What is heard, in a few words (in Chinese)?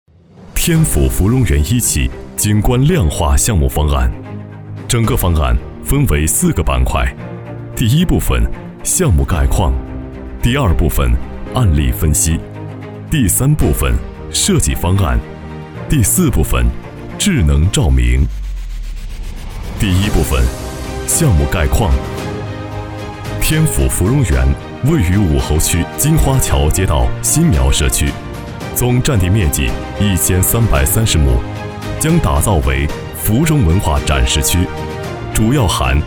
男 专题 项目介绍; 天府芙蓉园